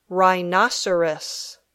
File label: "rhinoceros", English